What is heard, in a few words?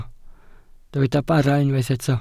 "bara", Welsh